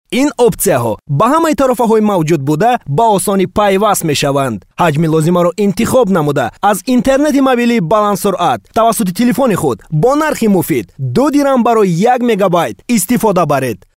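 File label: Tajikistan male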